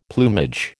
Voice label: "plumage", American